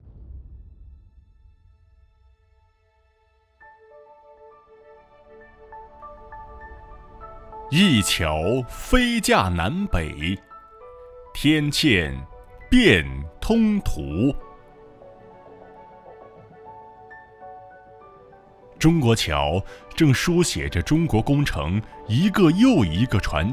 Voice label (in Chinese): 宣传片